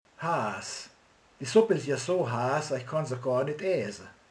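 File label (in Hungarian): "forró", Hungarian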